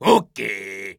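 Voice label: story, Japanese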